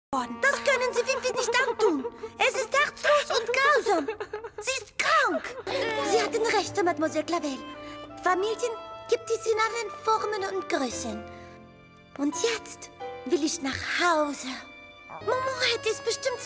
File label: Animation, GERMAN, FEMALE